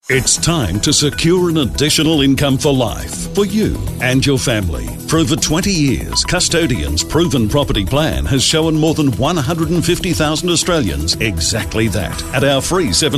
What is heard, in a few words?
Corporate